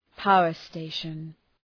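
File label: "power station", English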